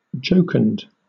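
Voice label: "jocund", British English; pronunciations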